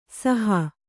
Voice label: "ಸಾಹು", Kannada

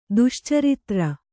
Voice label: "ದುಶ್ಚಾರಿತ್ರ", Kannada

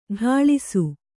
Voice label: "ಠಾಳಿಸು", Kannada

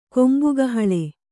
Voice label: "ಕೊಂಬುಗಹಳೆ", Kannada

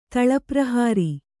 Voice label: "ತಳಪ್ರಹಾರಿ", Kannada; taḷa prahāri; knocking down (one's enemies).

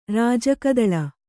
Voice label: "ರಾಜಕದಳಿ", Kannada

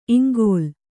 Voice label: "ಇಂಗುಲೆ", Kannada

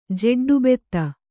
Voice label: "ಜೆಡ್ಡುಬೆತ್ತ", kannada

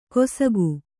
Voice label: "ಕೊಸಕು", kannada

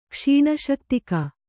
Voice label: "ಕ್ಷೀಣಶಕ್ತಿಕ", Kannada

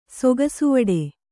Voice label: "ಸೊಗಸುವಡೆ", kannada

